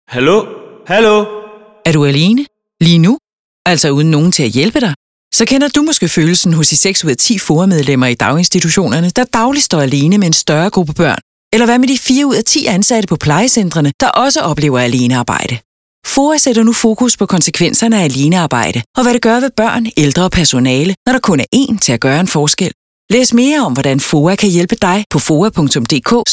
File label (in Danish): radiospot; foa; alenearbejde; silkeborg skanderborg